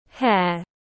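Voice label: "Hare", English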